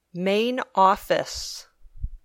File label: "main office", English